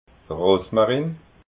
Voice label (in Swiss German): "rosmarin", alsace